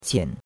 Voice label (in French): "qian3", chinois; Cours-de-Chinois